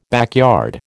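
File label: "backyard", American English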